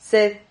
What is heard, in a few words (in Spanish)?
"sed", Silabas